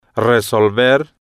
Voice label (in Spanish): "resolver", Spanish